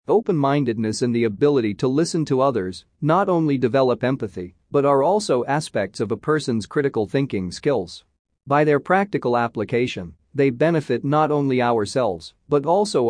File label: Male; Critical Thinking